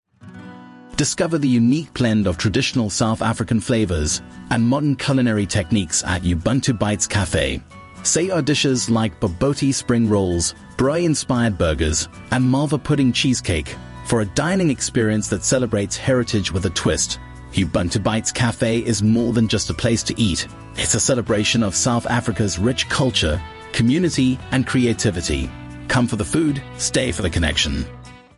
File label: narration; ubuntubitescafe